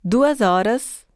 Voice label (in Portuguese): portuguese 1 hour; samsung YP-U5; Audio User Interface